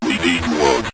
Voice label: we Need Wood, Synth Voice; Kenney's Sound Pack